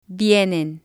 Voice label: "vienen" Spanish